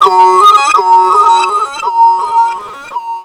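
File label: effects talk, Funky Vocals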